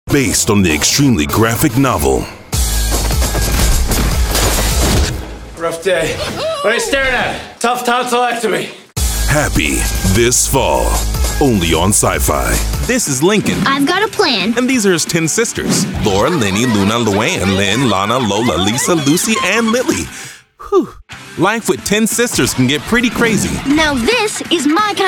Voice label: men promos